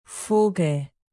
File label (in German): vogel, native; alphabet de